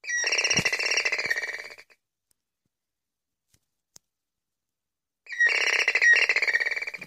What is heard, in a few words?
frog phone guy ringtone